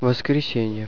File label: "sunday", russian